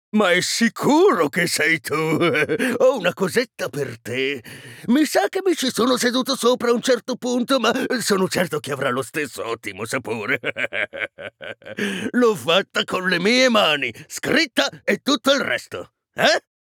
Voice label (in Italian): orco buono animato